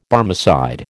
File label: "Barmecide", American